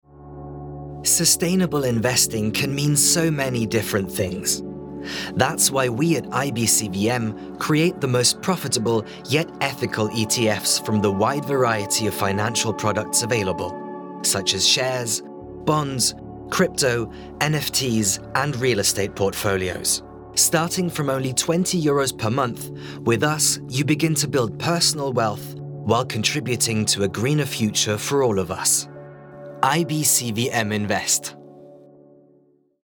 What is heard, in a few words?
EN Image Finanz